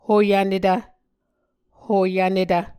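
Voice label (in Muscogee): "hoyvnetv", Muscogee word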